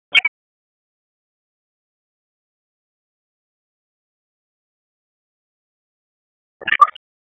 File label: Raleigh Police Northeast District Tac, p25; wake